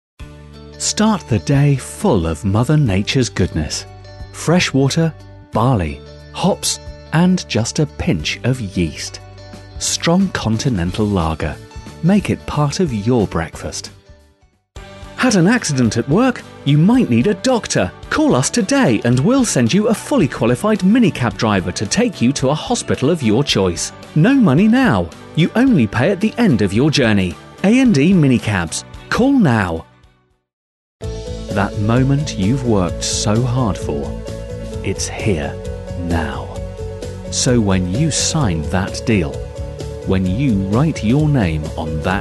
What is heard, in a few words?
commercial